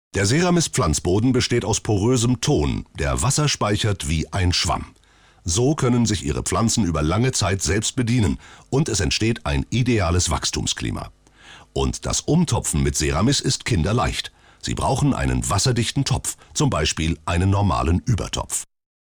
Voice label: kommentar

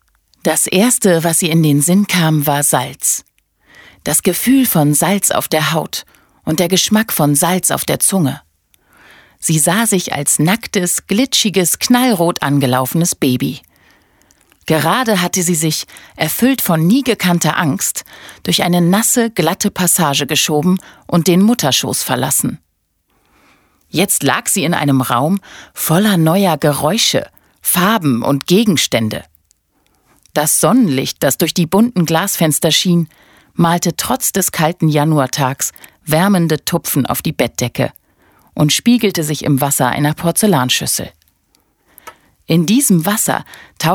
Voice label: Hoerbuch ohne Musik

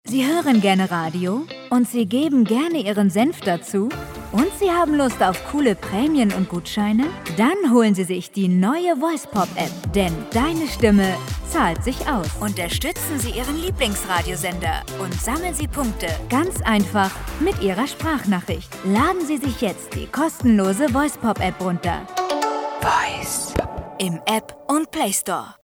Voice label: Radiospot jung dynamisch froehlich Voice Pop; LOFT VOICES

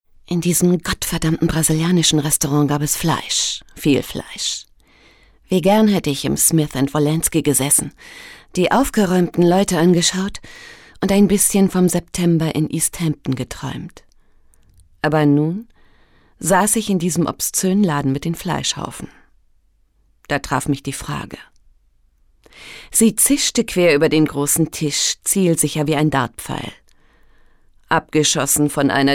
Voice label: Erzählung

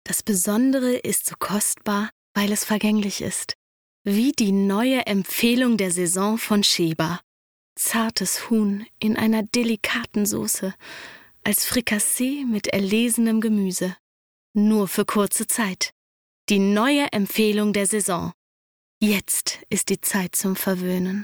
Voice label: sprecherdemo werbung; sheba

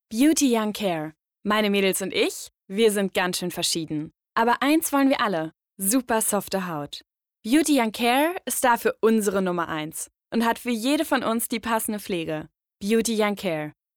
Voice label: Werbung Beauty Young Care

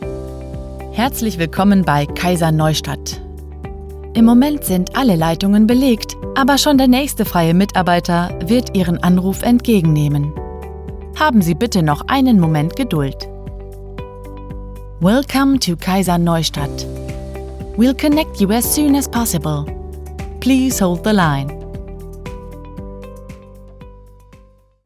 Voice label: Telefonansage, Wait Loop (Warteschleife), German; Kaiser Neustadt

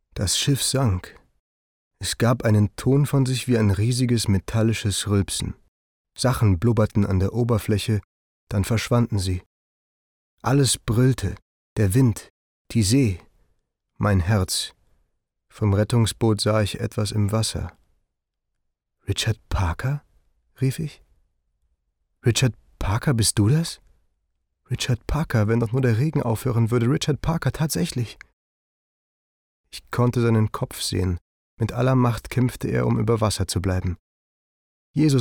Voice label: hoerbuch; schiffbruch mit tiger

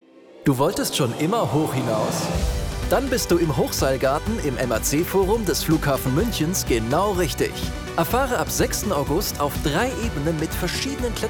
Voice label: Werbung de abenteuerlustig; Flughafen Muenchen

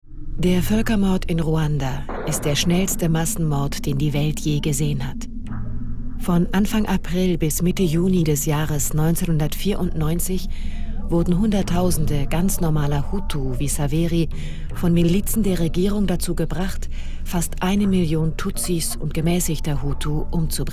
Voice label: doku; as we forgive

